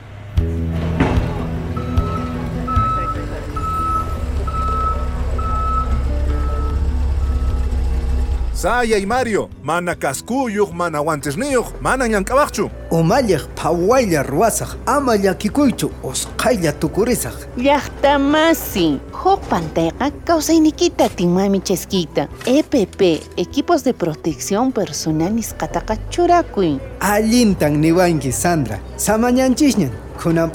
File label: RADIO DRAMA QUECHUA